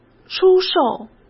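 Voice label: "出售", Mandarin Chinese; chinese flashcards; hsk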